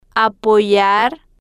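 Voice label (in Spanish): "apoyar", Spanish, female, slow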